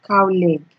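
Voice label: "cowleg", Nigerian Pidgin, female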